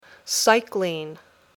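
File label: "Cycling", English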